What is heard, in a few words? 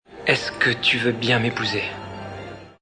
French Marry, Male